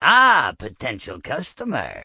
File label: Goblin Male Gruff NPC Greeting